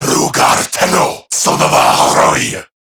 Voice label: Player Spotted, Male, Battle Barks; Dialog; Warframe; CorruptedGrineerMale

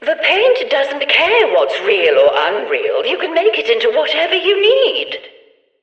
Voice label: Background Announcements

